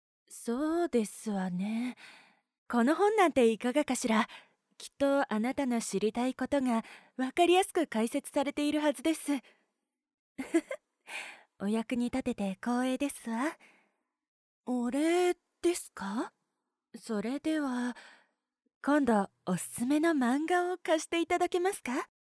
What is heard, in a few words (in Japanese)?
読書が好きなお嬢様